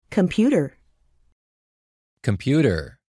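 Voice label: "Computer", American pronunciation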